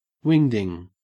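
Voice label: "wingding", Australian English; pronunciations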